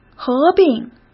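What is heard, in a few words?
"合并", Mandarin Chinese; hsk; chinese-flashcards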